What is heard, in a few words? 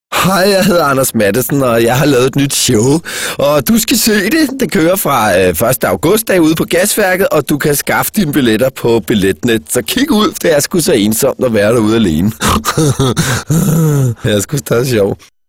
Radio spot